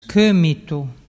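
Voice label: "comitu", Vernazzese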